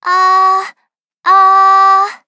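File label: start, Japanese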